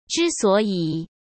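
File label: "之所以", Mandarin Chinese; chinese-flashcards; hsk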